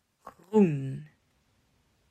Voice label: "Groen", Dutch

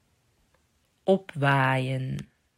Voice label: "Opwaaien", Dutch

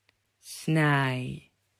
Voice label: "Snaai", Dutch

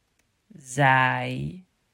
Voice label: "Zaai", Dutch

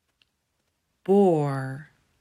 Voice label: "Boor", Dutch

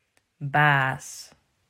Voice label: "Baas", Dutch

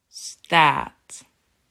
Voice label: "Staat", Dutch

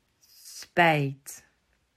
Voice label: "Spijt", Dutch

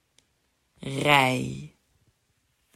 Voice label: "Rij", Dutch

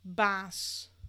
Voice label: "baas", Dutch; klankstabiliteit

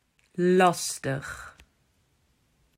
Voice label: "Lastig", Dutch

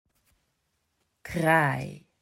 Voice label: "Kraai", Dutch

